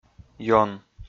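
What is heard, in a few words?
"ён", Komi, male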